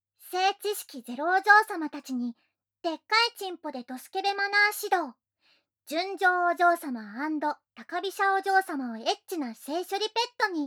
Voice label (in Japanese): タイトルコール, ASMR